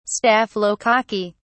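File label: "staphylococci", British English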